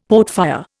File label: "portfire", Indian; Dictionary